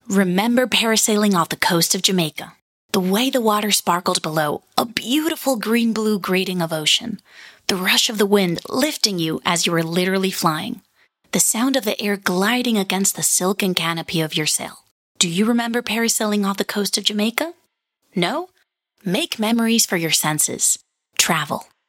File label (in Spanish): Ingles USA Canada Voz femenina juvenil